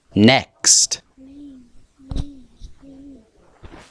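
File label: "ring", spoken word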